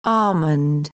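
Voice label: "almond", British English, female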